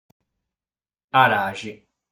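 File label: "Araxi", Sardinian word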